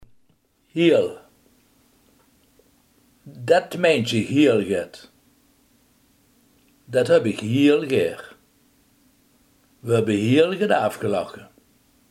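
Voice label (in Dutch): heel, Limburgse gesproken woordenboeken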